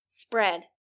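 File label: "spread", English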